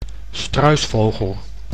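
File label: "struisvogel", Dutch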